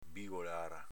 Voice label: "bigolara", dialetto veneto